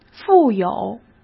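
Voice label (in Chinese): "富有", Mandarin Chinese; hsk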